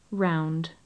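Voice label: "round", British English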